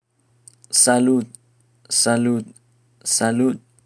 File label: "salud" Spanish